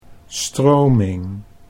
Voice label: "stroming", Dutch

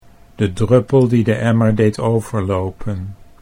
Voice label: de druppel die de emmer, Dutch

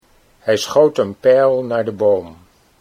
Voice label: "hij schoot een pijl", Dutch

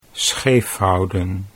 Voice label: "scheefhouden", Dutch

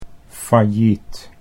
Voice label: "failliet", Dutch

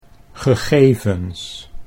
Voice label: "gegevens", Dutch

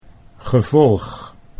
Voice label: "gevolg", Dutch

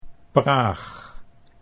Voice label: "Praag", Dutch

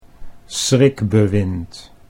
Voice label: "schrikbewind", Dutch

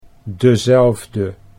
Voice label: "dezelfde", Dutch

